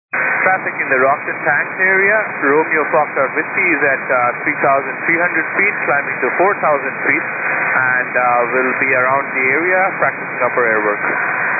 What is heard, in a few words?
122.925 MHz; scanner radio